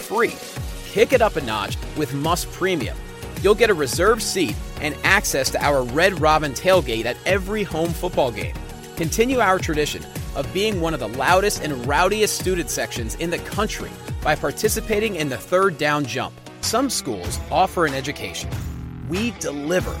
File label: Upbeat University College; Voice123